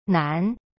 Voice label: "难" nan, chinese